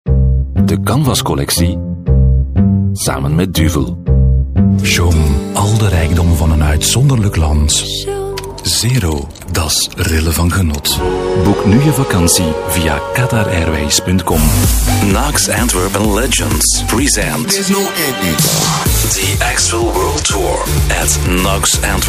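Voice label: flemish male commercial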